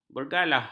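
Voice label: "bergalah", Malay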